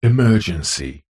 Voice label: science fiction computer voice male says emergency